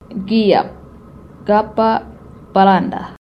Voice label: p sound; mawng spelling